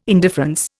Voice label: "indifference", Indian